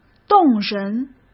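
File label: "动人", Mandarin Chinese; chinese-flashcards; hsk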